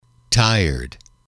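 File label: "tired", English, male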